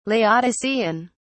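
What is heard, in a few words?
"laodicean", British English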